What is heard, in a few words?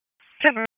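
Police Southeast District Dispatch; Raleigh; wake p25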